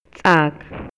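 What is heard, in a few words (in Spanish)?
Maya, Yucatán, male; CANTIDAD; INALI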